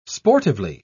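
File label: "sportively", British English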